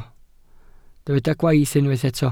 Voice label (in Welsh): "gwaith" Welsh